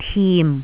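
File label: "tim4", Cantonese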